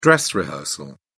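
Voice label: "dress rehearsal", British English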